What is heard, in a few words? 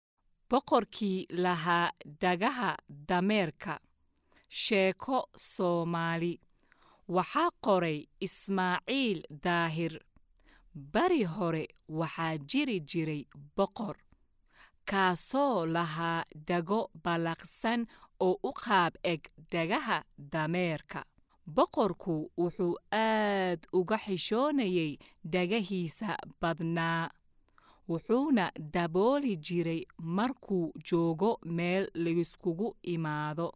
somali voiceover locutora somalia; locutora de Somalia